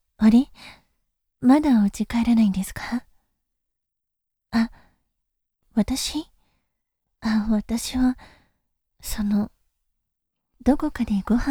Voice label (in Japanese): そっと話しかける